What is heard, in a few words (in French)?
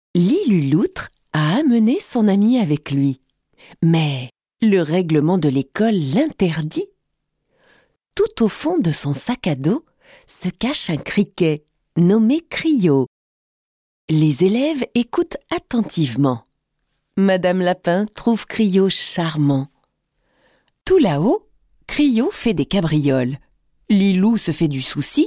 Narration; lilu loutre